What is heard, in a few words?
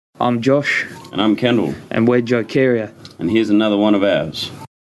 radio intro